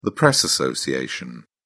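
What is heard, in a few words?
"press association", British English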